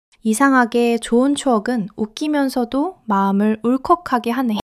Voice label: sadness

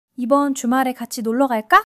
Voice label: joy; monologue

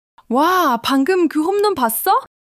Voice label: excitement; monologue

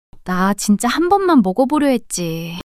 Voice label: shy; monologue

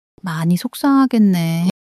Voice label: sadness, monologue

